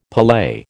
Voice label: "palais", American